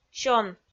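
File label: "сён" Komi, female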